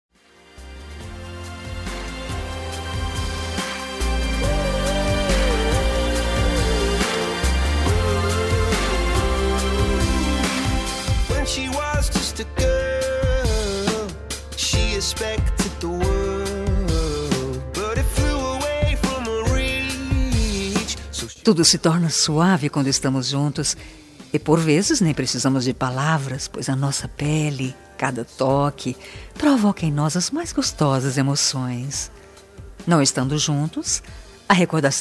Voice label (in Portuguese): ficante, Telemensagem Ficante – Voz Feminina